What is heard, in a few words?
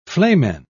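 "flamen", British English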